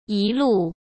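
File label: "一路", Mandarin Chinese; hsk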